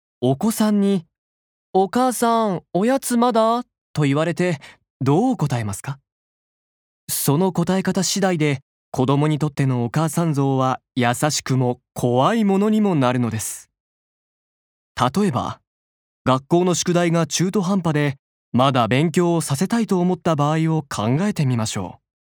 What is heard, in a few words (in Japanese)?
ナレーション